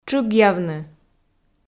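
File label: "тшыгъявны", Komi, female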